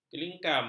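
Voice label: "kelingkam", Malay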